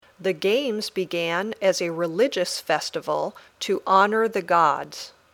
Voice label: Dictation